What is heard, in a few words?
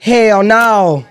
"hell no", expletives